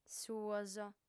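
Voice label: "suos", female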